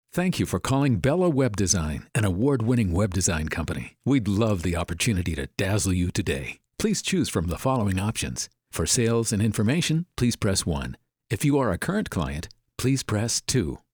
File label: phone greeting